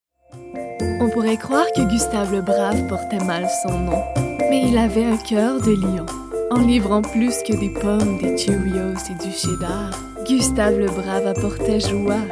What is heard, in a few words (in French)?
Pub Francais